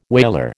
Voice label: "whaler", American

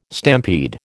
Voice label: "stampede", American

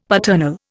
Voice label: "paternal", Indian; Dictionary

